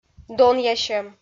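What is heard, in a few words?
"донъясьӧм", Komi, female; kpv audio dict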